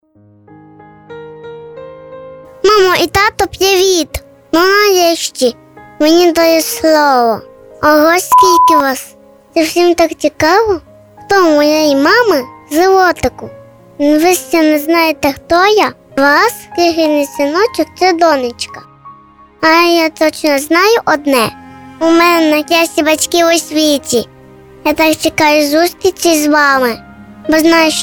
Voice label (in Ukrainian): ukrayinskoyu; avtorska pisnya